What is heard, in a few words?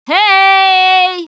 lost call, russian, Survivor Voice Producer